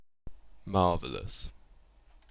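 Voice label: "marvellous", British English; pronunciations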